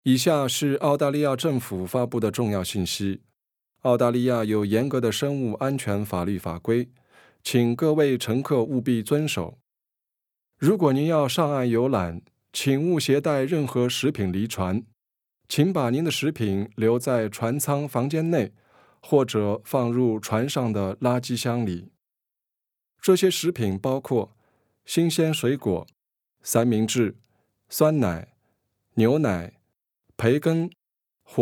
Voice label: shore exc mandarin; Shore Excursion Announcement - Mandarin 2015; cruise vessels